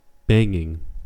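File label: "banging", US English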